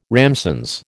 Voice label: "ramsons", American